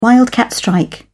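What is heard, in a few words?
"wildcat strike", British English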